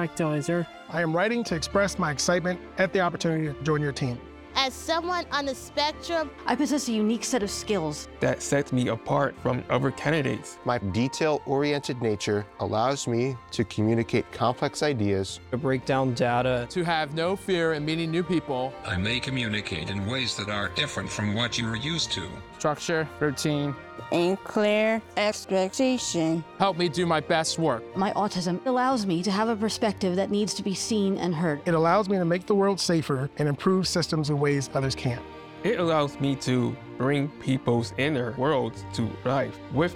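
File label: Dear Employer English Radio; Dear Employer 60sec English Radio; Autism Speaks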